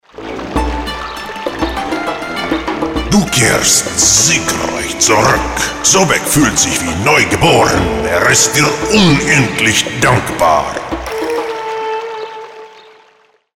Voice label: trickstimme

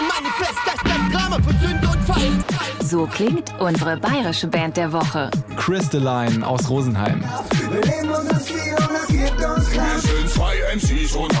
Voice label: trailer radio

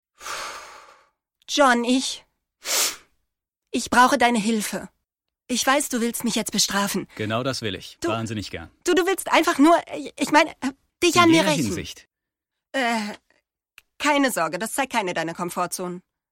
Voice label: synchron film mix

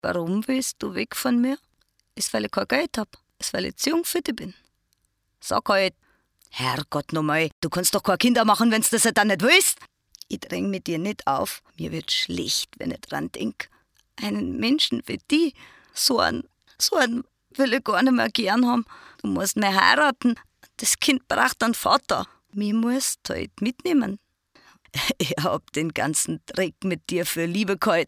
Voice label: bayerisch

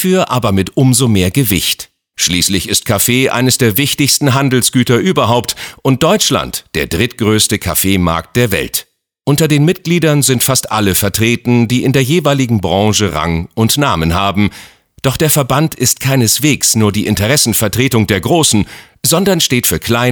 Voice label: imagefilm kaffeeverband

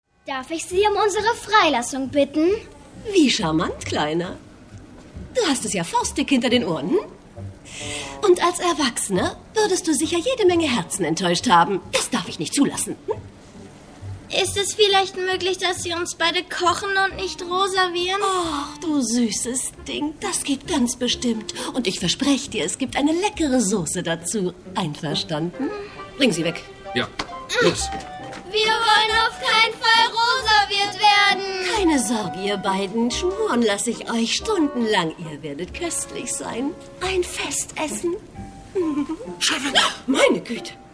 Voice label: synchron; Monster Hunt

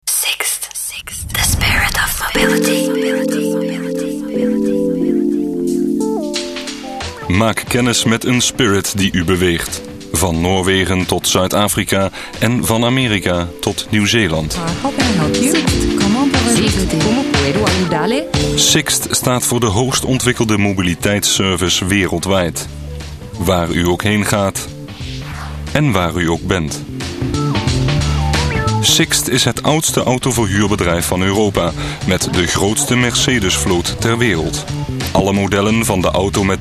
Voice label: kommentar

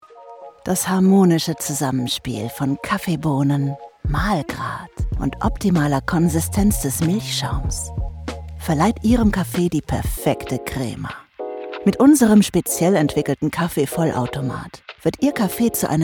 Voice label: kaffeeautomat werbedemo; Muttersprache Deutsch, Französisch

